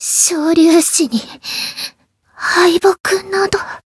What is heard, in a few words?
retire, jp; tiramisu